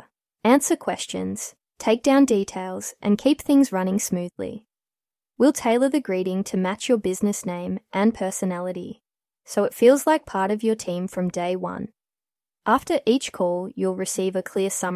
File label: AI Receptionist; ai phone answering